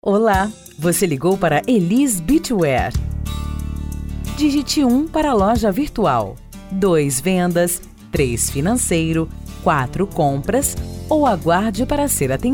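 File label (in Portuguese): Gravação de Atendimento e Espera para PABX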